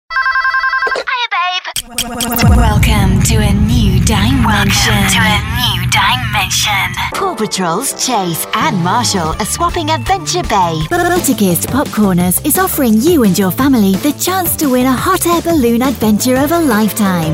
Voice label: Voiceover; Bitesize Voiceover Showreel